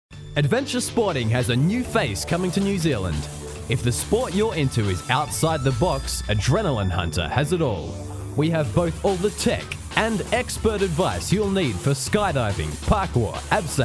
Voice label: Commercial